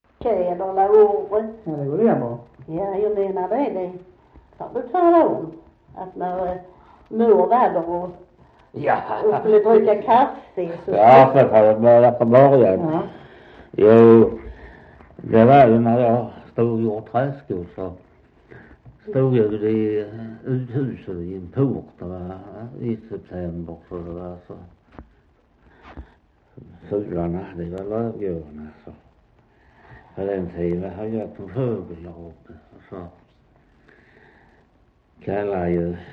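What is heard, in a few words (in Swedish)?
Västra Torup Skåne, dialekter; Folkminnen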